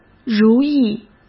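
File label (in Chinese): "如意", Mandarin Chinese; hsk; chinese flashcards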